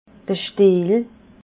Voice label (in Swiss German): "stiel", alsace